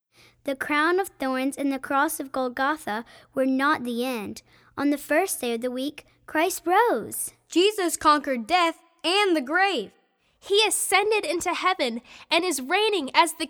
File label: Narration